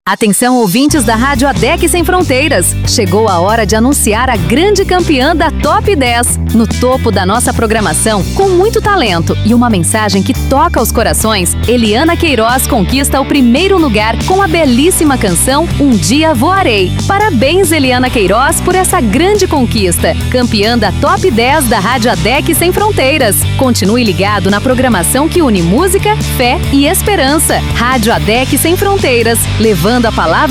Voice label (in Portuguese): vinheta radio chamada resultado musical